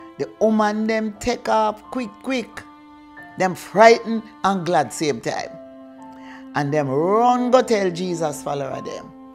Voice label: verse, jamaican creole